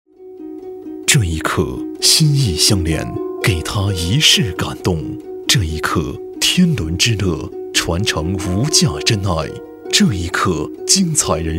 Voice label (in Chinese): 男 广告 TVC广告; 中国农业银行TVC广告